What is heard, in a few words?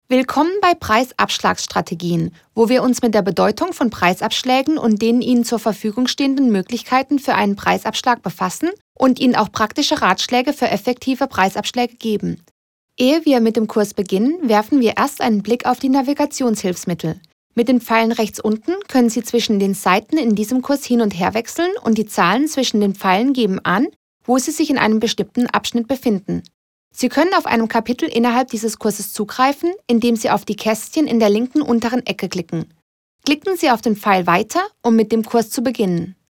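German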